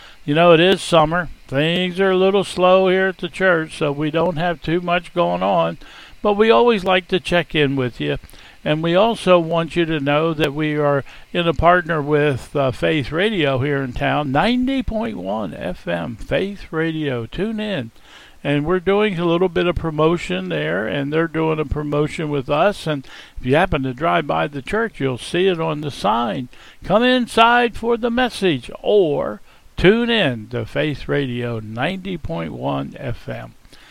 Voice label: Welcome and Announcements